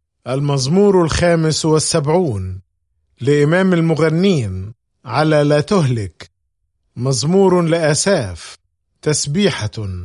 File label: Psalm, arabic bible; psalms